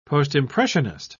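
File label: "postimpressionist", British English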